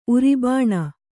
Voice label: "ಉರಿಬಾಣ", Kannada